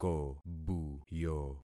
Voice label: "kobuyo", concat syllables; Affixing experiment